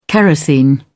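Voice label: "kerosene", British English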